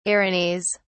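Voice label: "erinys", British English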